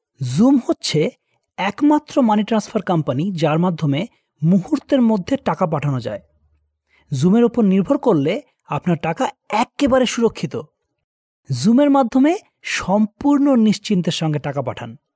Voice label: male bengali voice over sales; bangla